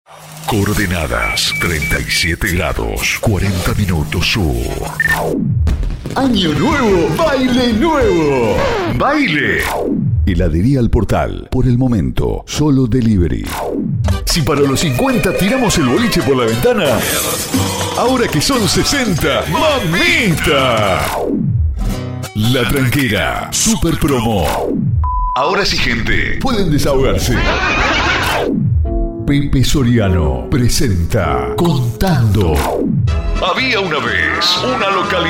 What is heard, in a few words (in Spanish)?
Graves Estilo, voces masculinas